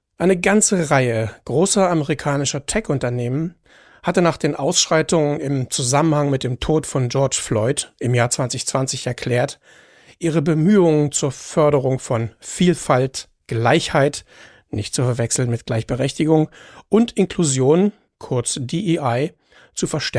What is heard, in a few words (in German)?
Kommentar; Kontrafunk aktuell